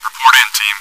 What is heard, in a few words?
chat